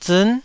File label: "ziin9", Taiwanese syllable